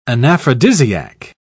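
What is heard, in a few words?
"anaphrodisiac", British English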